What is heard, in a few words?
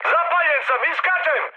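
Serbian on fire mood high, speech; War Thunder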